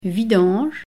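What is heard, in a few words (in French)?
"vidange" French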